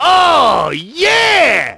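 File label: "oh yeah", male; Splashdown; XBOX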